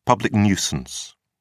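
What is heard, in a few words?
"public nuisance", British English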